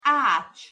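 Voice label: "acs", Hungarian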